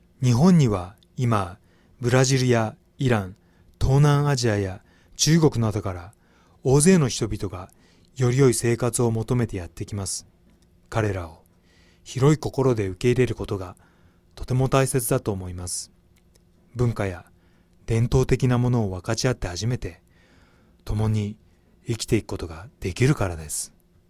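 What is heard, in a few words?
Japanese narration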